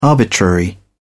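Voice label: "arbitrary", British English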